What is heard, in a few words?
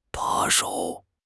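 "把手", Chinese; HSK6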